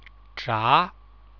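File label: "zha2", Chinese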